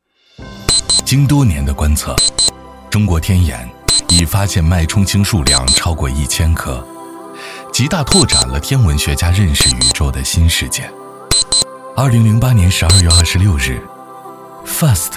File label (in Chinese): 男 新型纪录片